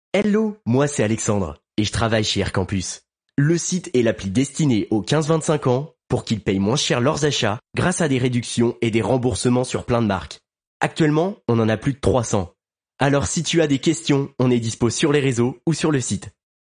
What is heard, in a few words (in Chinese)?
法语男, 外语, 小语种